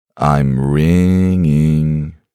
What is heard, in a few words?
man im riiiinging, deep male; ringtone; voice ringtones